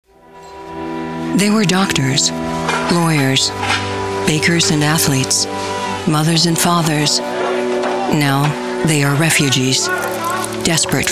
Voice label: Commercial